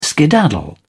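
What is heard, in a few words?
"skedaddle", British English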